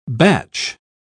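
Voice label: "bach", British English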